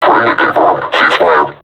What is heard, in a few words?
taunt, vo combine suppressor; Half Life Alyx